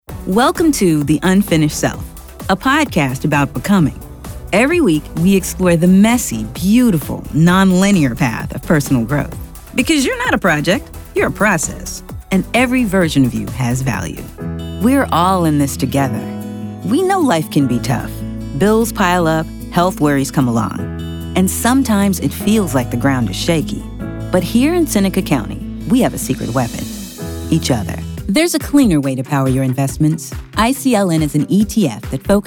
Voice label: narration